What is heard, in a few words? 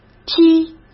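"踢", Mandarin Chinese; hsk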